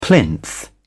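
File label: "plinth", British English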